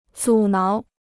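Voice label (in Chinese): "阻挠" Chinese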